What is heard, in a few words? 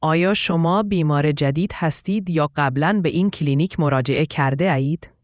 farsi